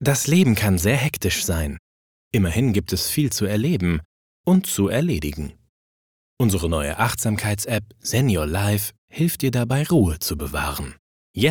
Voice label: Zen Your Life

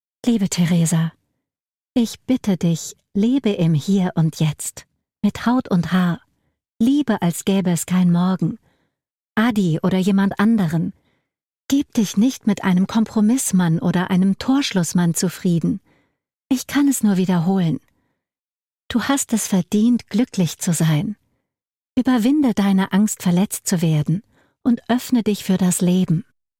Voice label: Hoerbuch; Inselblueten und Meer; Teresas Mutter

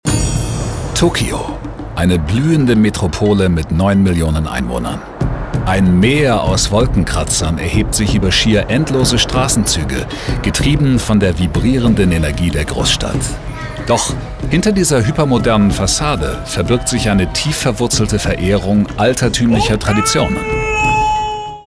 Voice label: Doku; ServusTV; LOFT VOICES

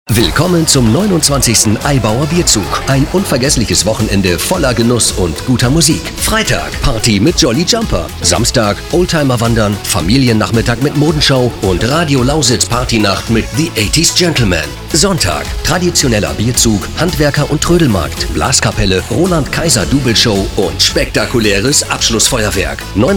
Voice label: Funkspot dynamisch; Eibauer Bierzug

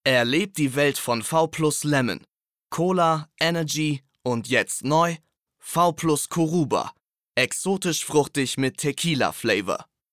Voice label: casting werbung deu

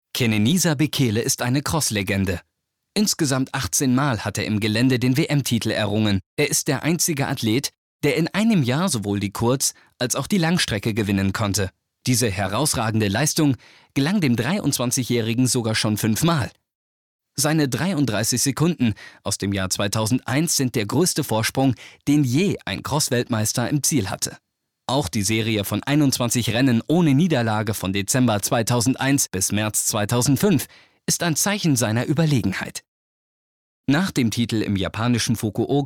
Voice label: kommentar

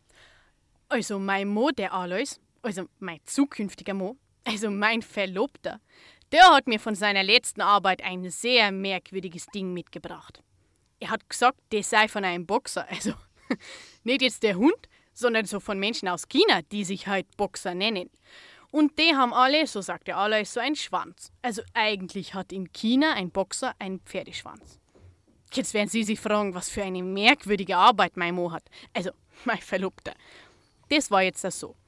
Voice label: Dialekt Bayerisch; Die Resi

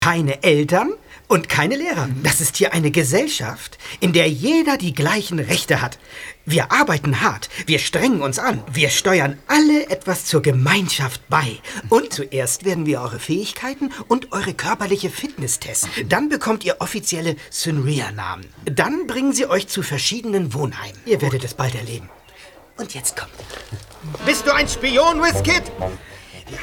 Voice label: hörspiel; ddf brainwash

